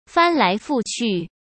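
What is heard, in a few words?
"翻来覆去", Mandarin Chinese; hsk; chinese-flashcards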